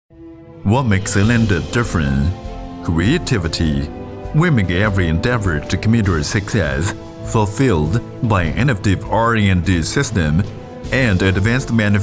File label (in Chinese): 男 英文 专题片大气气势